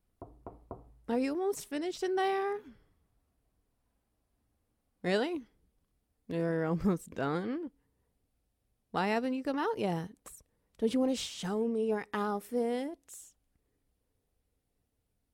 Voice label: SFX Wet Noises; Black Cat and Golden Retriever